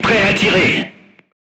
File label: voice message charged, crew tanks french